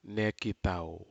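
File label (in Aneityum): "nekitau", Aneityum word, bushes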